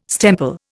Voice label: "stemple", Indian

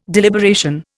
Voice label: "deliberation", Indian

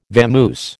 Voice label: "vamoose", American

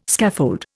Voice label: "scaffold", Indian

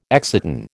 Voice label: "exciton", American

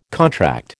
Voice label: "contract", American

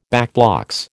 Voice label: "backblocks", American

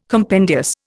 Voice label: "compendious", Indian

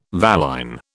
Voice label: "valine", British

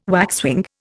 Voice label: "waxwing", Indian

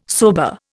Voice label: "sober", Indian

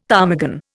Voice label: "ptarmigan", Indian; Dictionary

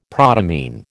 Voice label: "protamine", American

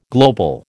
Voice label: "global", American

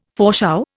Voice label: "foreshow", Indian

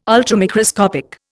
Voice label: "Ultramicroscopic", Indian

